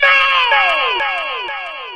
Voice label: "no" male ECHOES; Voice; expletives